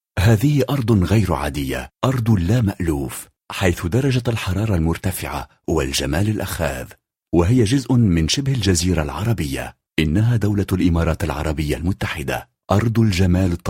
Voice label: Arabic informative

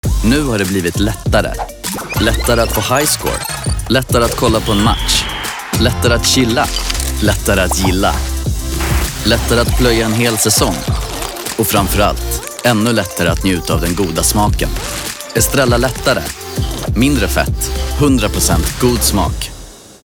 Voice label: se commercial

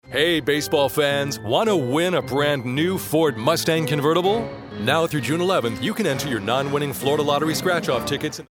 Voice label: us commercial

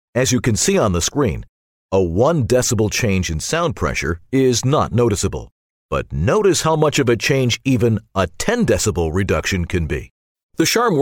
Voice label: US elearning

